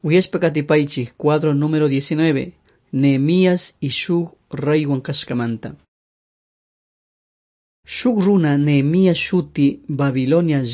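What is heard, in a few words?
quichua chimborazo, drama; on trial for god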